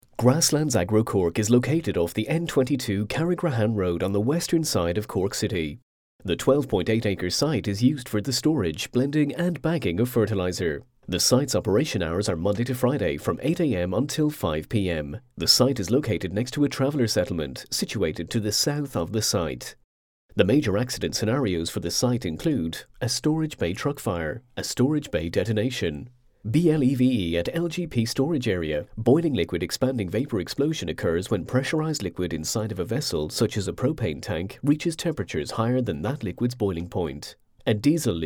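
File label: English, Irish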